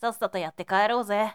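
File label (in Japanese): "sassatooyattekaerouze", Japanese boy; shonen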